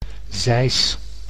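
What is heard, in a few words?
"zeis", Dutch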